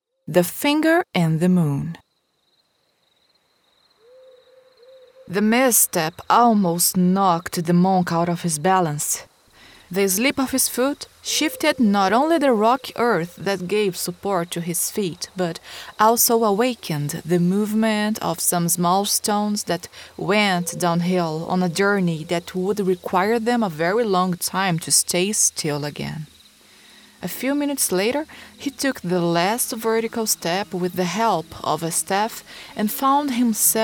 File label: podcast tales english characters female brazilian; the finger and the moon